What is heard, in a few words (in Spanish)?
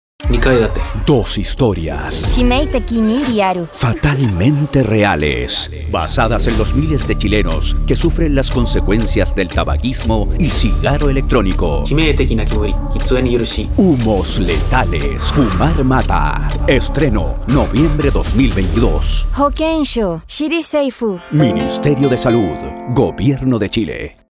Japonés; Japones Generica Acortada